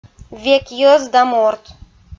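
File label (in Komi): "век йӧз да морт", female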